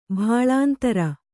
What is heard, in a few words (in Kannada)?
"ಭಾಳಾಂತರ", Kannada